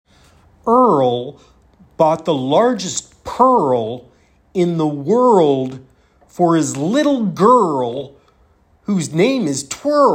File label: R and L Sounds Poem